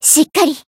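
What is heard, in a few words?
Voice heal, Japanese; tsukiha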